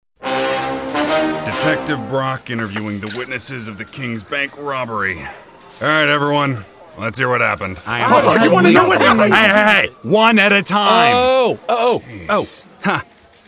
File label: Animation